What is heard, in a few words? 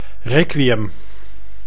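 "requiem", Dutch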